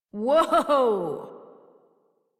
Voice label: Horse Stop Adult; Warframe; Duviri